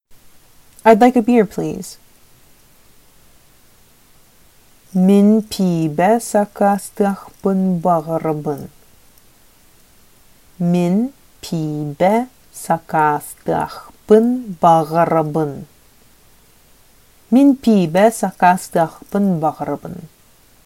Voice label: Sakha Id like a beer please

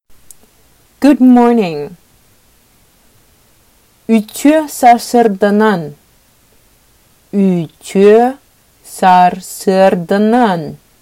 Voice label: Sakha Good morning

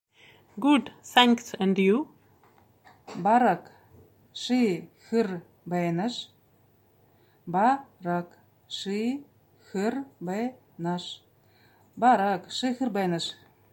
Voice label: Good thanks and you, buryat

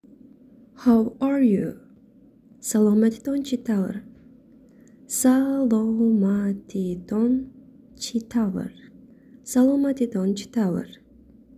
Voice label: How Are You Tajik

